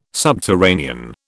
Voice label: "subterranean", British

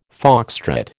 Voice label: "foxtrot", American

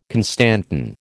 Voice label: "constantan", American

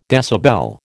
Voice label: "decibel", American

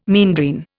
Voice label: "meandrine", Indian

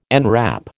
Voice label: "enwrap", American

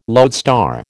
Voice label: "lodestar", American

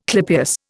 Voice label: "clypeus", Indian

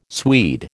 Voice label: "Swede", American

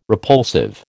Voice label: "repulsive", American

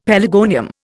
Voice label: "pelargonium", Indian; Dictionary

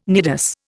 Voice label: "nidus", Indian

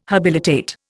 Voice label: "habilitate", Indian

